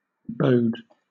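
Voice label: "bode", English, British accent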